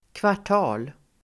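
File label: "kvartal", Swedish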